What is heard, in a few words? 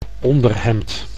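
"onderhemd", Dutch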